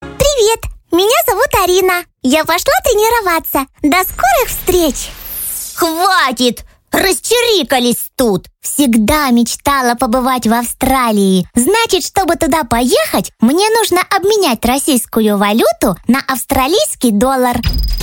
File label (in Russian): Detskiy golos